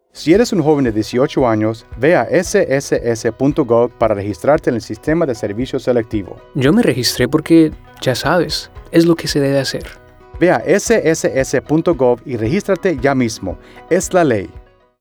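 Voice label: Testimonial Radio, Spanish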